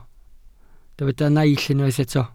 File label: "naill", welsh